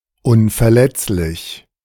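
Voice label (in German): "unverletzlich", German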